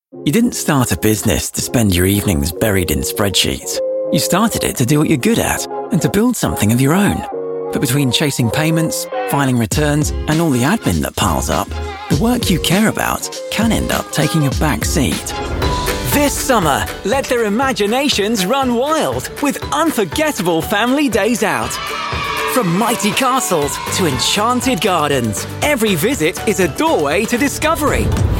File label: commercial